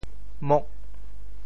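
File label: "mok8", Teochew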